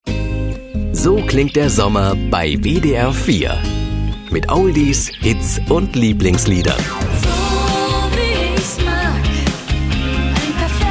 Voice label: trailer sommertag; wdr4

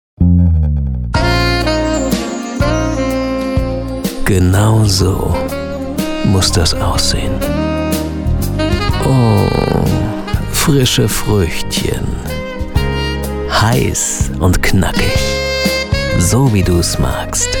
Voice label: sexy, Sprecher; eis

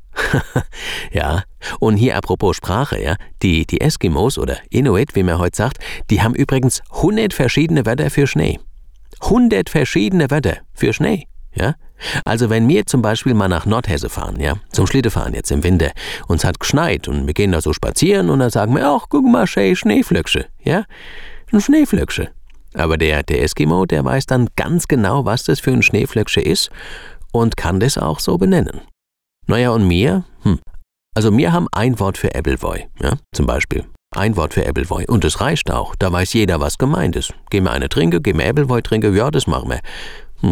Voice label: hessisch; eskimos

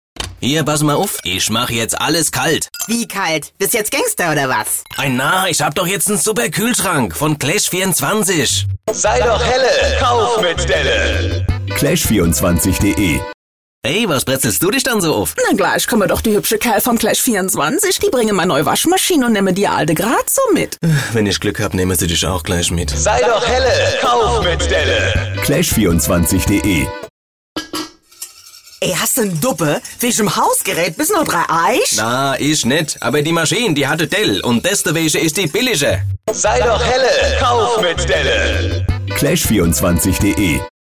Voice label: hessisch; gaengster

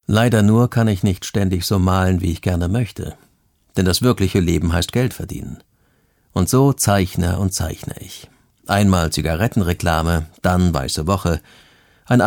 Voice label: audioguide kunsthalle

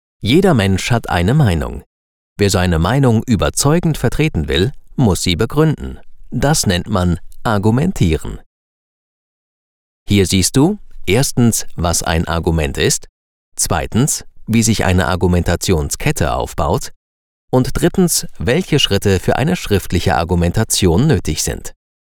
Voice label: Sprecher elearning; argumentation

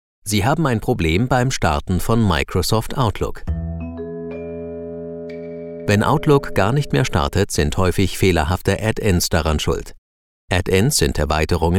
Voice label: sprecher elearning; fehlerbehebung outlook

